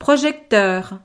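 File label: "projecteur", French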